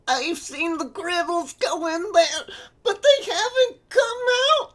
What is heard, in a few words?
Male Kid Beggings